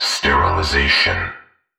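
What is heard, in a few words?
"sterilization", synthesized voice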